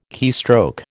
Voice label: "keystroke", American